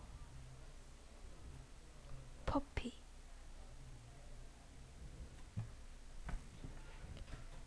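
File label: "puppy", spoken word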